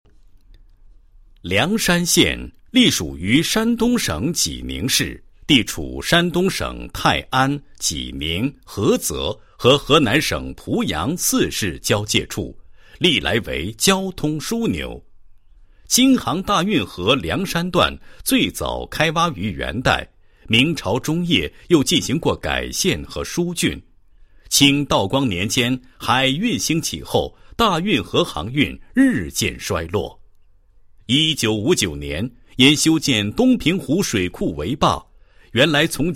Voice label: 男 解说 解说词; 湿地公园解说词

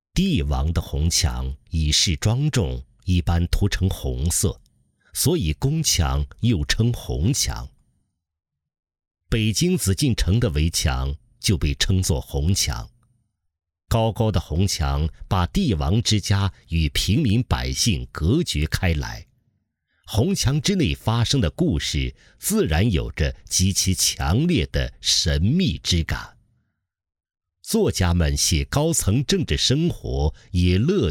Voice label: 男, 纪录片; 红墙

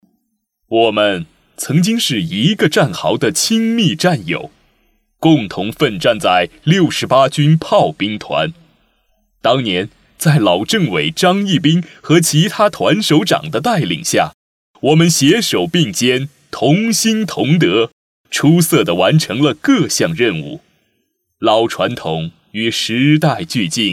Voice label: 男 其他 战友联谊会配音

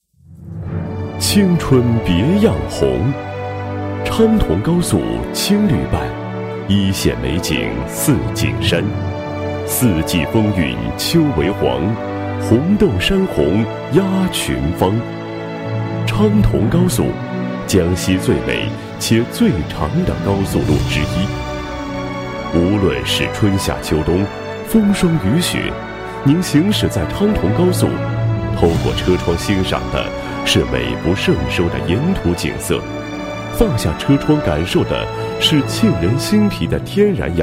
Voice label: 男 高速宣传 高速服务工作宣传配音; 昌铜高速

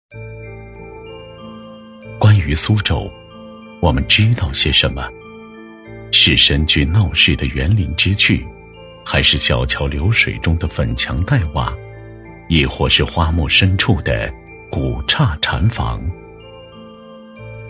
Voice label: 男25号 专题 记录片风格, 国语男声配音; 苏州丝绵翻制技艺

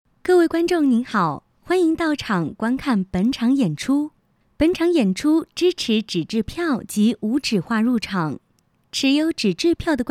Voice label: 女,解说旁白,提示音

